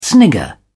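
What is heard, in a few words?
"snigger", British English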